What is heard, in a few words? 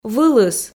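"вылыс", Komi, female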